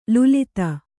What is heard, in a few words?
"ಲುಲಿತ", Kannada